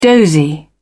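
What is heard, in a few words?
"dozy", British English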